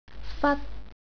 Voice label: "fat1", Cantonese jyutping